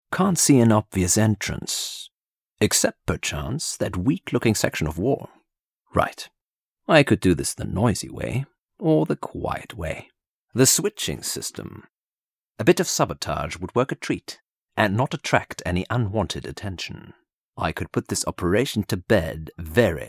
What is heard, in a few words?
RP English - International English Accent Voicereel